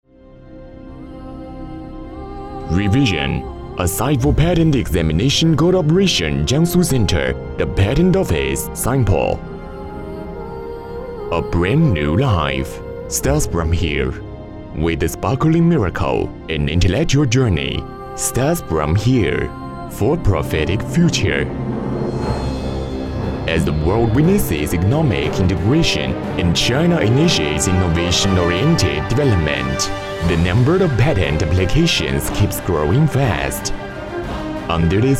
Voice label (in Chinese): 英男 英文专题 浑厚大气